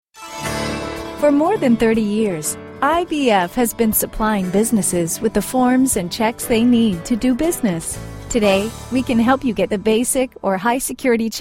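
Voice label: american us female ivr; english; voice demos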